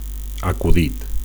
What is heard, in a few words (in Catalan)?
"acudit", Catalan; joke